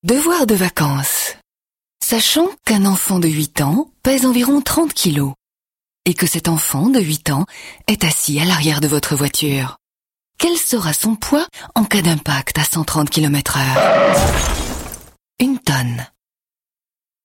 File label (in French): radio securite routiere, posee